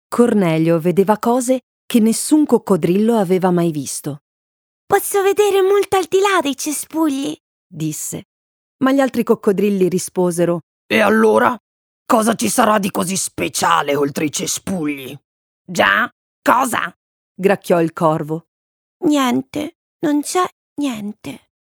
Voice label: italian audiobooks